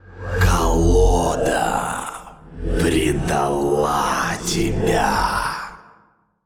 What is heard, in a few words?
Male Old God In Play; Hearthstone